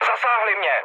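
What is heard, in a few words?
aircraft damaged, Czech, mood med; War Thunder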